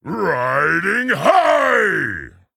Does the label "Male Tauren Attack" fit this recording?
Yes